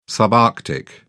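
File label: "subarctic", British English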